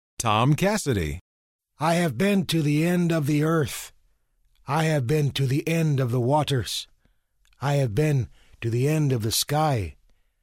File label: NATIVE AMER; Native American